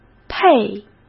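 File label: "配", Mandarin Chinese; hsk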